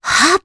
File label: Vox Casting, jp; voices heroes jp; Cassandra; kingsraid